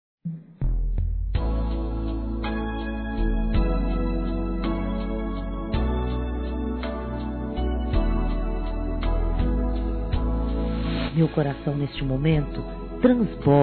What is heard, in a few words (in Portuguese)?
NATAL E ANO NOVO ROMANTICO; Telemensagens; fem; Misto